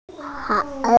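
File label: "mo fang", Mandarin Chinese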